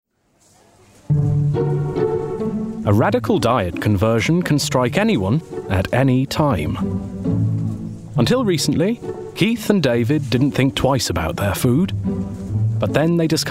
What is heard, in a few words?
DOCUMENTARY; Health Food Junkies